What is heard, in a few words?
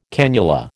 "cannula", American